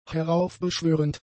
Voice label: "heraufbeschwörend", German; adjectives